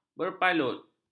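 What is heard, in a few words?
"berpalut", Malay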